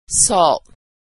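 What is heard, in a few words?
"salt", American English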